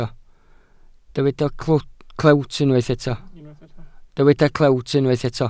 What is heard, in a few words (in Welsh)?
"clewt" Welsh